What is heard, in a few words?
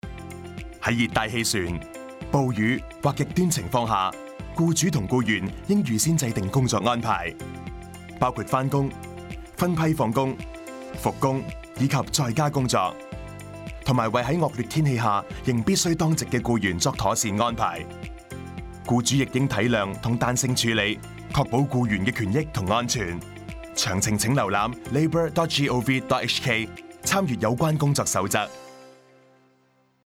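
Typhoon Radio Cantonese; 热带气旋、暴雨及「极端情况」下工作安排; 粤语; 电视宣传短片及电台广播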